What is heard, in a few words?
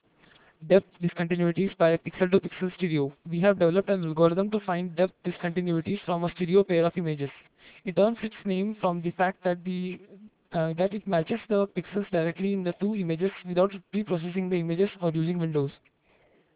Voice Comp Decomp